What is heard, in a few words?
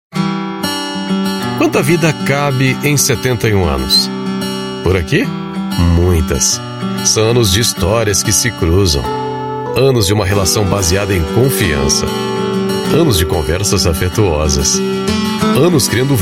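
Brazilian Portuguese Institutional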